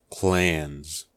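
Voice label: "clans", English, US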